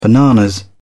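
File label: "bananas", British English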